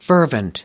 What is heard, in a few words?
"fervent", us